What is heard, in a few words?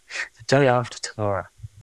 Voice Acting, es